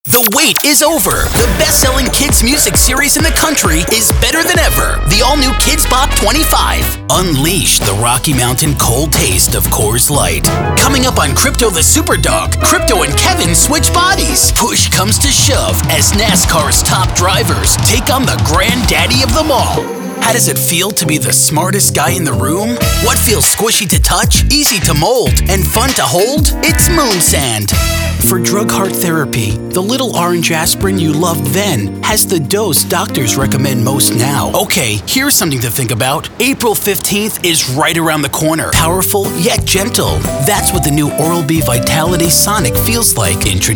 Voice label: COMMERCIAL DEMO